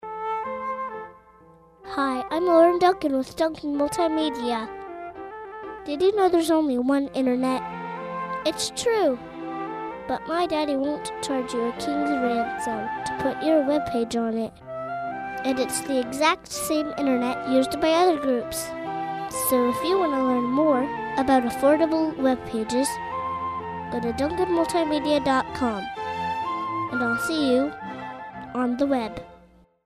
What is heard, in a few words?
Commercial